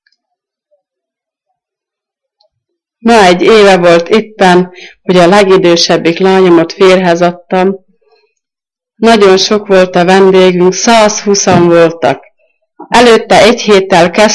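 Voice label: dialect collection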